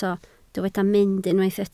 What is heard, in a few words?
"mynd", Welsh